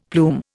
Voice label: "plume", Indian; Dictionary